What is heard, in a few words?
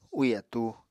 "úì atúu", Apatani